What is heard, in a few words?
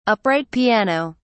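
"upright piano", British English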